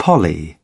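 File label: "poly", British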